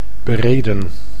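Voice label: "bereden", Dutch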